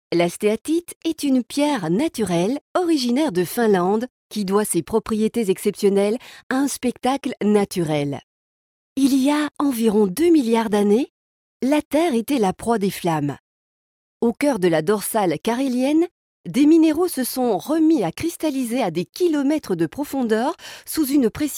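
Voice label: French Belgian narration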